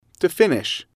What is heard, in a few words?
"to finish", English